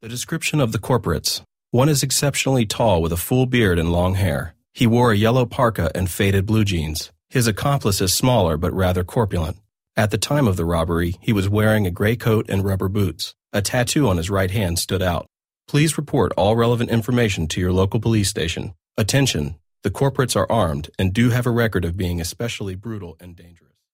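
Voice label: American English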